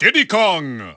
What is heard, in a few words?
English Announcer; Diddy Kong; SSBB